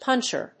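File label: "puncher", English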